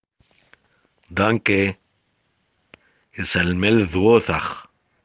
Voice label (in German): danke aramaeisch